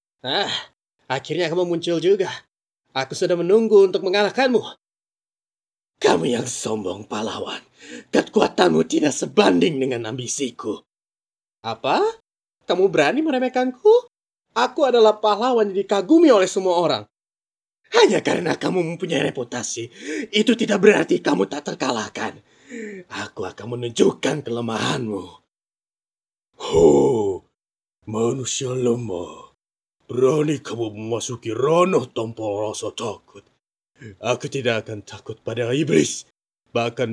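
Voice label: Indonesian characters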